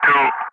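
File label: "3", calm, English; Ka-50; Wingman; DCS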